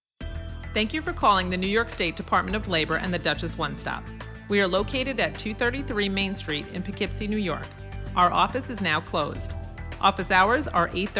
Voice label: On Hold Message